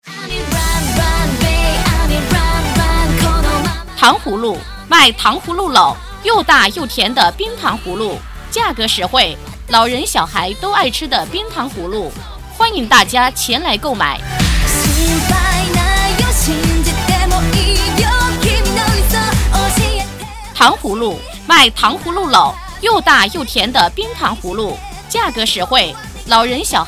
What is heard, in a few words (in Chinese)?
女 促销 糖葫芦; 糖葫芦成品